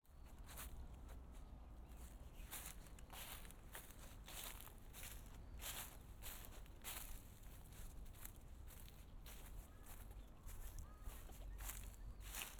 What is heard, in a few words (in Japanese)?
公園のお散歩・トーク, ASMR